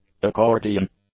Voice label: "accordion", English; nouns